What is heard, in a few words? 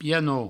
"piano", Provençal; vocabulaire fondamental du provencal